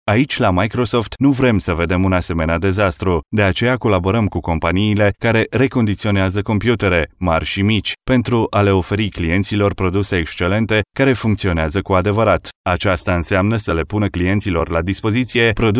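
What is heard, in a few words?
Romanian MALE corporate voice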